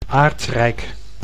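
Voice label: "aardrijk", Dutch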